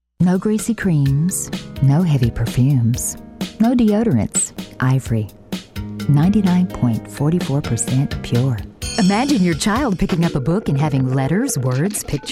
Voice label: Commercial Female, Commercial Voiceover, TV and Radio